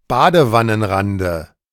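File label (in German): "Badewannenrande", German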